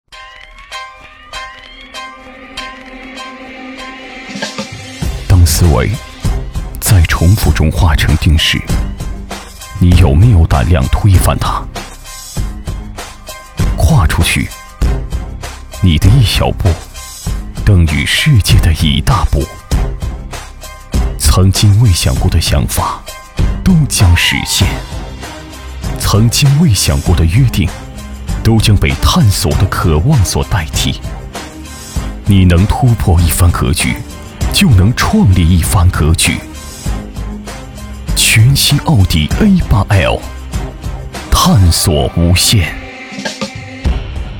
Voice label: 男 大音 广告 汽车 成熟坚定; 奥迪汽车

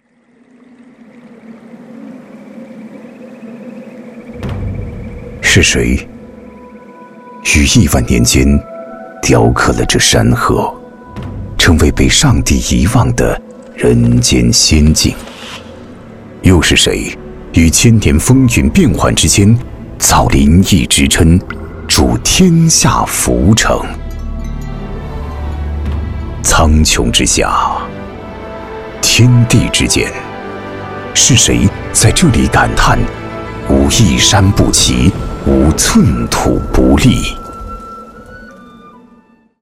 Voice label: 男国 烟嗓 城市旁白; 郴州

